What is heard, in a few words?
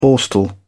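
"borstal", British English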